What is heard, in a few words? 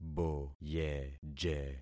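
"boyeje", concatenated syllables; Affixing experiment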